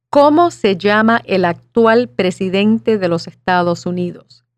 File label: Spanish